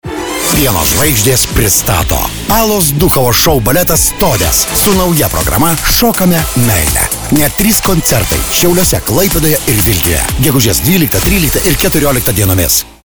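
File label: lithuanian male commercial; voice demos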